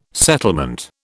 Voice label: "settlement", British

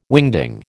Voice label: "wingding", American

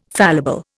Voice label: "fallible", Indian

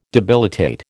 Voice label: "debilitate", American

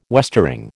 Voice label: "westering", American

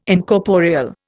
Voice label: "Incorporeal", Indian

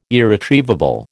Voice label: "irretrievable", American

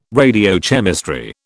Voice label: "radiochemistry", British

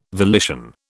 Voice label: "volition", British

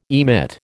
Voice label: "emit", American

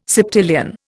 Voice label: "septillion", Indian

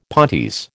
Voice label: "pontes", American

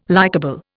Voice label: "likable", Indian

